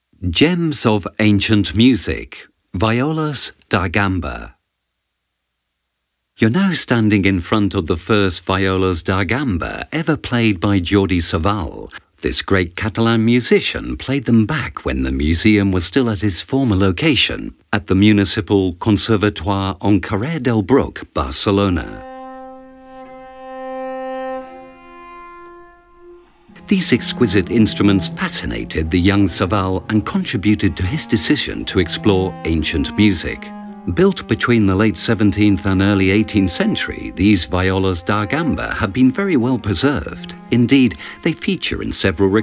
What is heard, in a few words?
audioguia english; delamusica